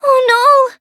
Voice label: hit, en; cookierunwiki